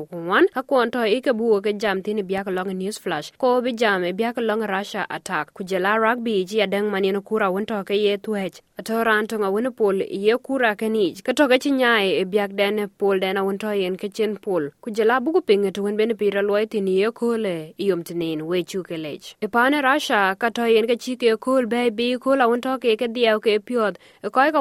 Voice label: dinka, podcast; SBS Dinka News Flash 25/03/2024; Russia aci kol bëi bëyic maan dhiɛɛu kek piöth e thon cinne kɔc ke miä ku thɛɛrdiak ku dhorou nɔk ku miä nyin ke cikk